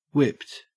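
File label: "whipped" English, Australian; pronunciations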